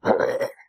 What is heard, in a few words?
Amanita Hurt; oxeyewiki